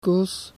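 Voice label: "koos", Dutch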